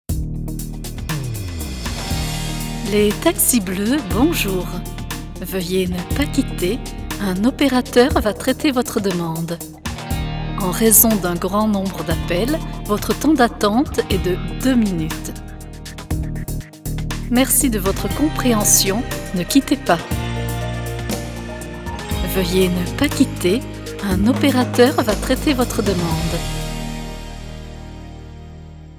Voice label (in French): TELEPHONIE